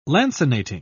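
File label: "lancinating", British English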